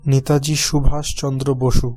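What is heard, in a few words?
"নেতাজি সুভাষচন্দ্র বসু", Bengali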